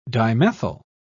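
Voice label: "dimethyl", British English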